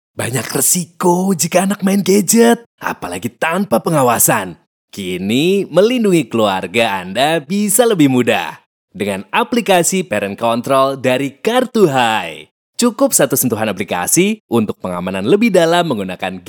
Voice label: KASUAL BAHASA INDONESIA; CASUAL

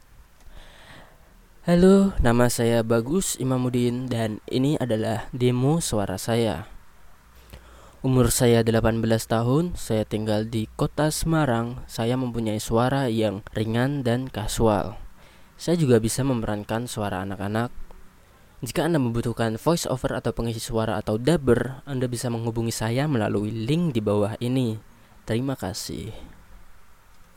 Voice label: Indonesia Casual

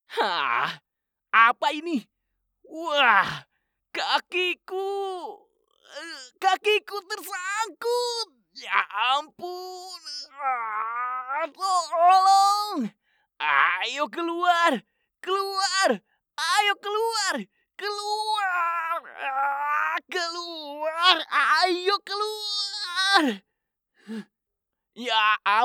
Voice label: Karakter Indonesia

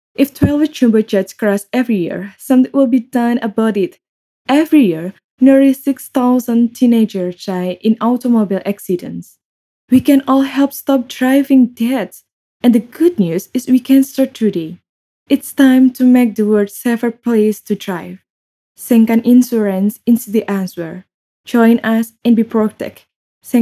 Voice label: FORMAL INGGRIS

